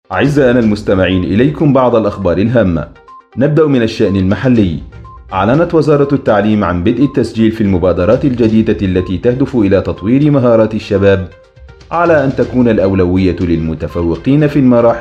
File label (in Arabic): نشرة الأخبار